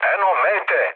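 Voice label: Serbian near waypoint mood med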